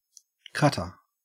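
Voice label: "cutter", Australian English; pronunciations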